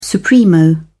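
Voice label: "supremo", British English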